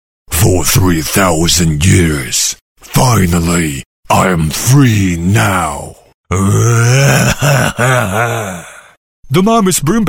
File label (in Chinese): 英男, 角色多变声音, 合集